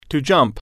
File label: jump, englishAudio